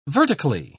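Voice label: "vertically", British English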